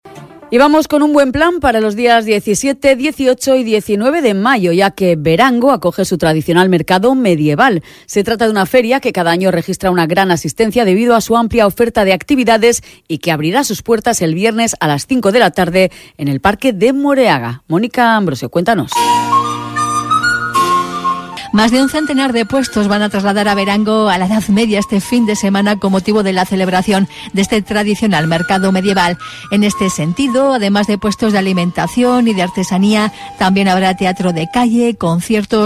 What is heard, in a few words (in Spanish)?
Radio Noticia; Radio Nervion; Mercado Medieval Berango